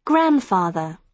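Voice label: "grandfather", British English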